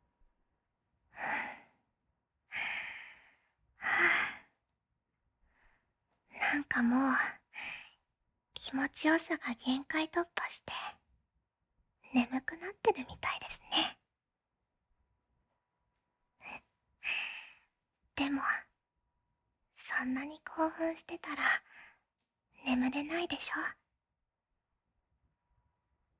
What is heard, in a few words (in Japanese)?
ピロートーク